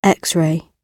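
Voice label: "X-ray", British English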